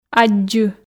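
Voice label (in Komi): "аддзы", Komi, female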